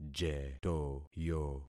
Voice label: "jetoyo", concat syllables; Affixing experiment

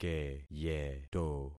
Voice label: "keyeto", concat syllables; Affixing experiment

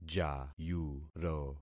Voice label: "jayuro", concat syllables; Affixing experiment

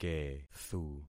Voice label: "kethu", concat syllables; Affixing experiment

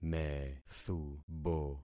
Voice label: "methubo", concat syllables; Affixing experiment

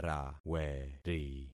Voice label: "raweri", concat syllables; Affixing experiment

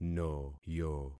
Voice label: "noyo", concat syllables; Affixing experiment

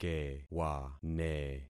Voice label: "kewane", concat syllables; Affixing experiment

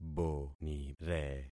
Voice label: "bonire", concat syllables; Affixing experiment

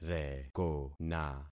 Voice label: "rekona", concat syllables; Affixing experiment

